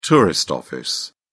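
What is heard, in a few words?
"tourist office", British English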